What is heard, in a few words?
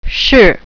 "yes", Mandarin